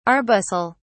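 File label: "arbuscle", British English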